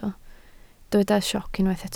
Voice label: "sioc", Welsh